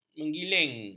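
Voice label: "menggiling", Malay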